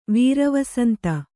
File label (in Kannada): "ವೀರವಸಂತ", Kannada